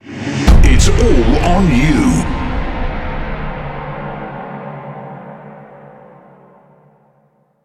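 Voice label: Speech, British English; World Of Warships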